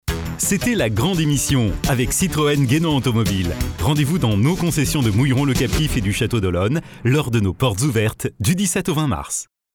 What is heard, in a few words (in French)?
sponsor voix off; radio